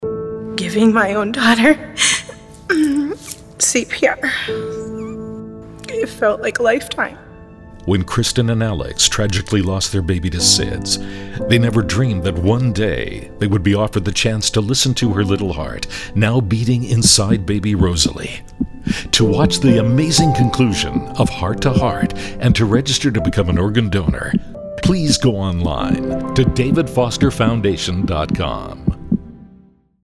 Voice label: Radio PSA